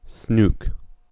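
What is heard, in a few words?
"snook", US English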